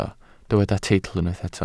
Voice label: "teitl", Welsh